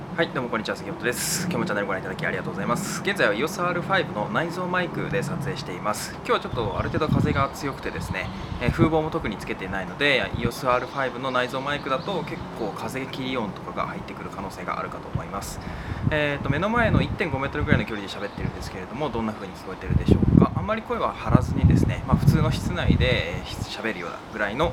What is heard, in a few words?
EOS R5 Built in Mic